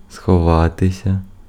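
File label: Ukrainian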